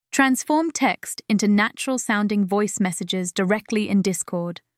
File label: female voice